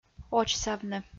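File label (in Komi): "очсавны", Komi, female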